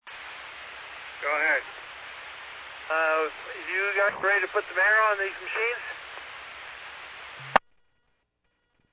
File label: Medevac, scanner; NativeAirAmb